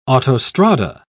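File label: "autostrada", English, British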